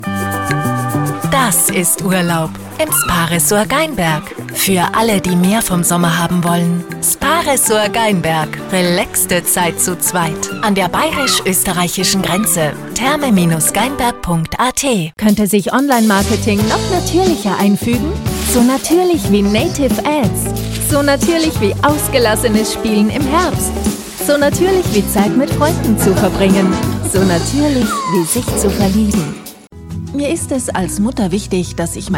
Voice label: Sprecher Showreel

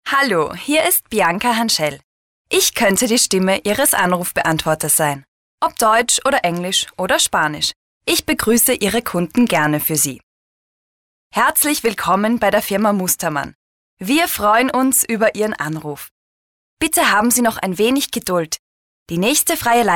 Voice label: Telefonansagen

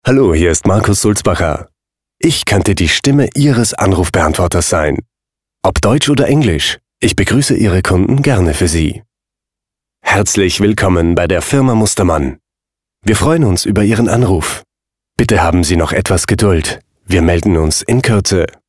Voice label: Telefonansagen

